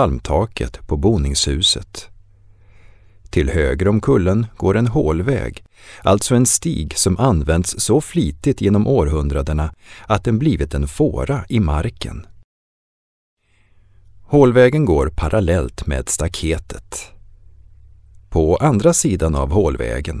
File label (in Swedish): Syntolkning av miljön runt Gunnes gård; gunnesgard ljudguide